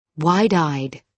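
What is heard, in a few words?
"wide eyed", British English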